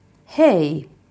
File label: finnish hello